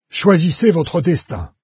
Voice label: choose fate, fr, NARRATOR; Fate Undiscovered Realms